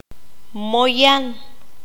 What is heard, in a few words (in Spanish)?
"cielo de mal tiempo", Q'anjob'al